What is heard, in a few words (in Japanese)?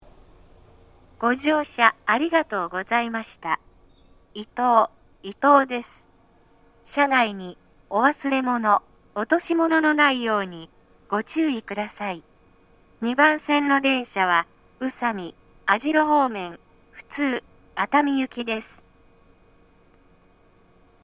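到着放送, 合成音声型 (女性）; 普通 リゾート21号 熱海行き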